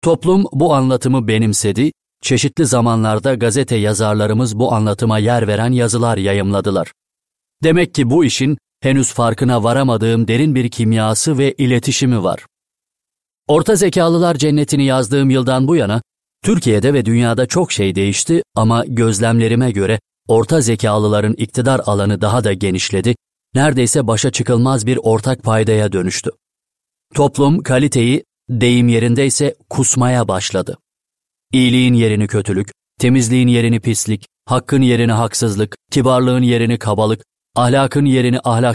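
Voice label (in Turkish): KITAP, Seslendirme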